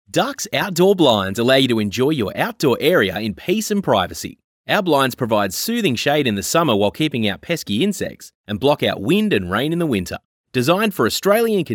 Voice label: Soft Sell